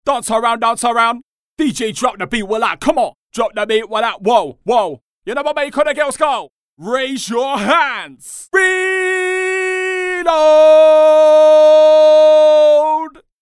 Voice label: MC Vocals 140 BPM MC Phrases